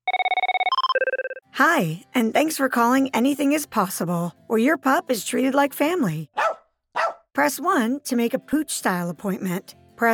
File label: telephony demo